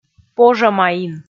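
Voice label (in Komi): "пожӧмаин", Komi, female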